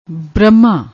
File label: "brahmma", Punjabi